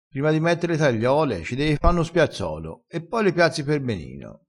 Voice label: "spiazzolo", crespinese

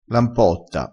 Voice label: "lampotta", vocabolario dialettale

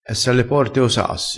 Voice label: "esse alle porte o sassi", crespinese

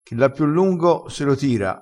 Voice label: "chi l ha piu", crespinese

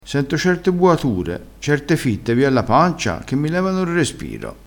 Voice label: "buatura", crespinese

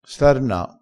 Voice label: "starna", vocabolario dialettale crespinese

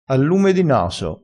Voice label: "a lume di naso", Crespinese

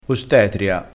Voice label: "ostetria", crespinese

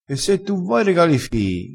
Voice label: "regala fii", vocabolario dialettale

